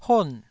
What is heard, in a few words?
"hon4", taiwanese